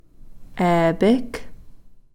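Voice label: "we-are", Takelma word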